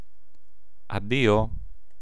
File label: "adio", greek